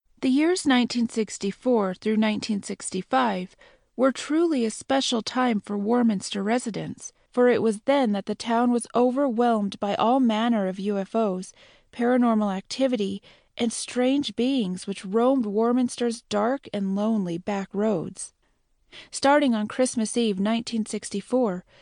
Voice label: Informative